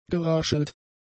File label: "geraschelt", German; adjectives